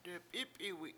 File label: "dep7Ip7iwi7" skunk, Washo